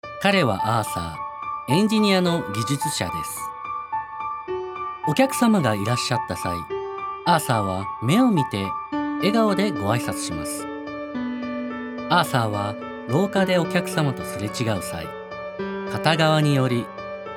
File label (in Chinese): 日語男聲; 半島酒店